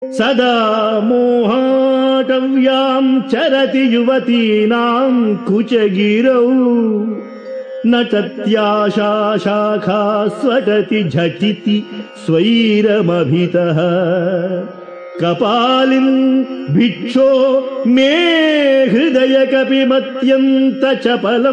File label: Sanskrit; Sri Adi Sankaracharyas Sivananda Lahari; Adi Shankaracharya; श्रीशिवानन्दलहरी २०